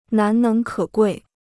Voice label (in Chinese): "难能可贵", Chinese